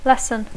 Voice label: "lesson", English

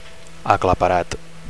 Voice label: "aclaparat", Catalan; overwhelmed; speechless

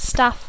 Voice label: "staff", English

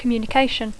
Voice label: "communication", English

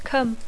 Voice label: "come", English

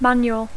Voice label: "manual", English

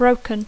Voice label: "broken", English

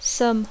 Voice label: "some", English

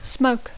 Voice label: "smoke", English

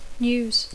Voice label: "news", English; notícies

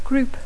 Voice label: "group", English; grup; agrupació; banda

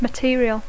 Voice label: "material", English

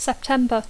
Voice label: "September", English

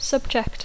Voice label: "subject", English

